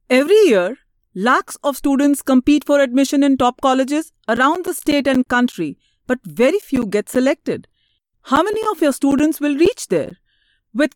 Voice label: Female, English, India

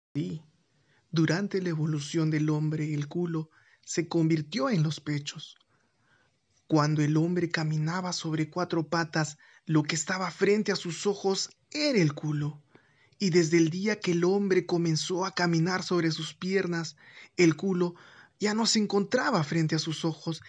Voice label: Spanish (LA), Male

